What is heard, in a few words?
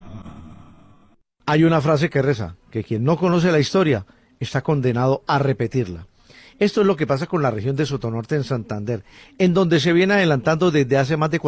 radio; accesoradio